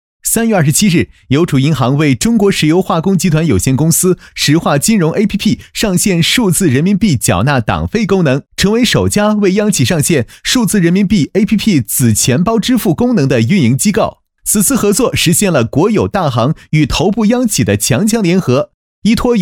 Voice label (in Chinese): 男-沉稳